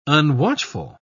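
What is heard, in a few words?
"unwatchful", English, British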